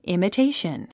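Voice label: "imitation", 英単語 発音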